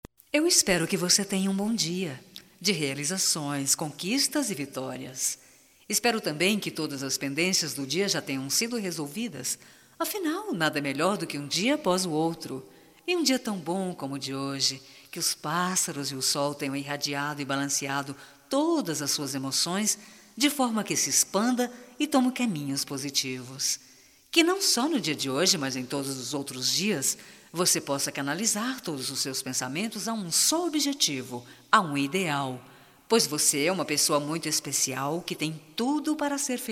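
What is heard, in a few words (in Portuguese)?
BOA SORTE, female, Telemensagens, Só Voz; Telemensagens 2017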